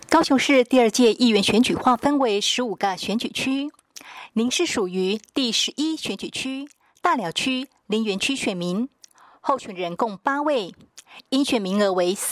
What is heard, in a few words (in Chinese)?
國語; 高雄市; 高雄市市長議員有聲選舉公報; 第十一選區